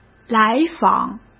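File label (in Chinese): "来访", Mandarin Chinese; chinese flashcards; hsk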